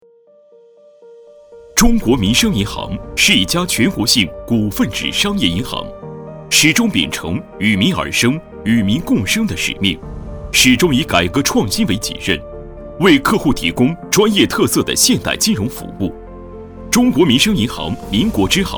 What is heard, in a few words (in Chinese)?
男 大音 宣传; 银行宣传样音; 中国民生银行